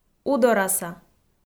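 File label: "удораса", Komi, female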